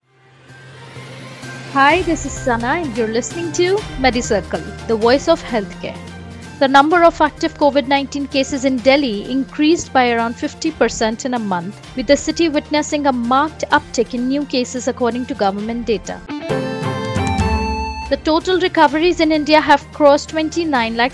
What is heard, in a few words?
english news